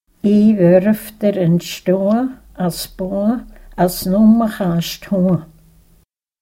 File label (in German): frümsen spruch stoa, sennwalder dialekte